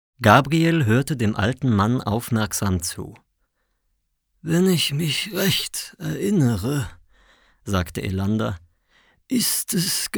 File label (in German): hoerbuch